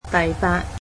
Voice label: "eighth", cantonese